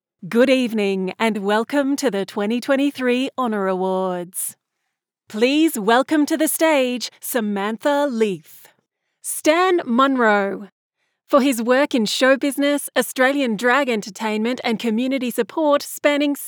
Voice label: VOG; Honour Awards

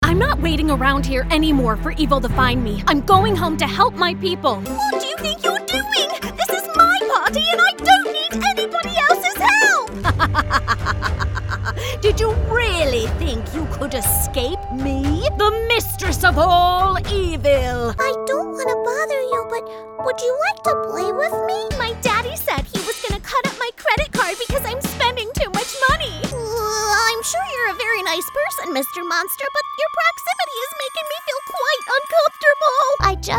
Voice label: Character

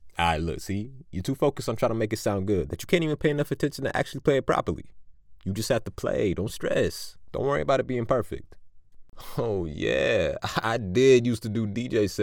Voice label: African American

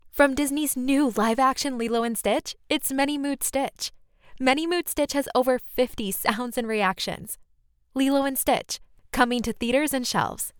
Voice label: Toy Ad; Disney

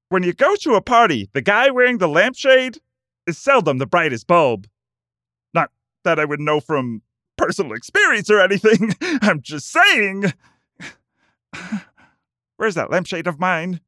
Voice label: comedic impersonations; Fozzy

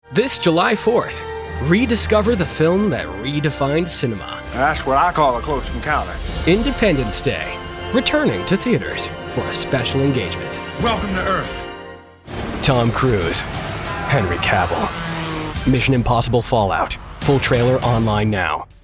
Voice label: Promo

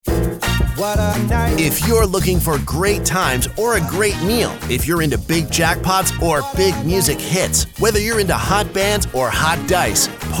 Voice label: Casino Radio; Parx Casino

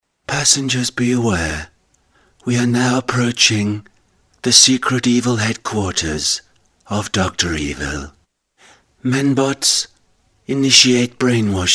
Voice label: Computer Voice

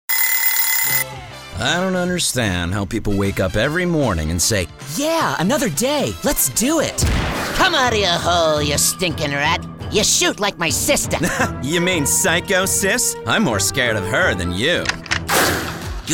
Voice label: ANIMATION

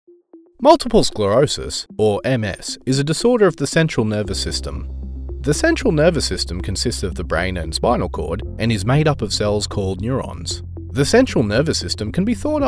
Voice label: Explainer, en-US

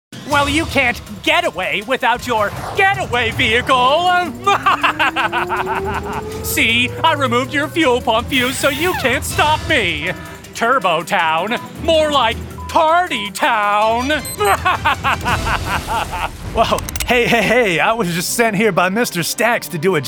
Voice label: Character

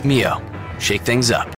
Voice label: cool understated; mio

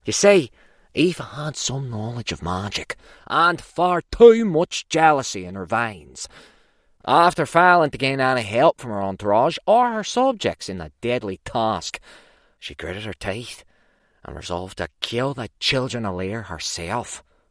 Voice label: Belfast

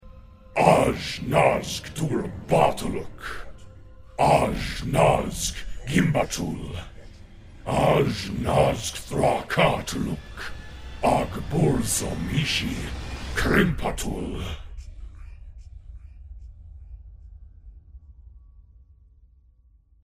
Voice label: spell chanting, en-US

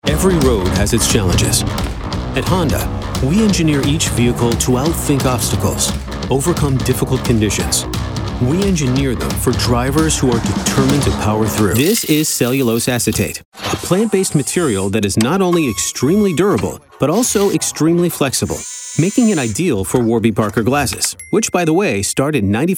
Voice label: Commercial, en-NZ

